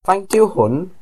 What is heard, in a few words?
how much, Welsh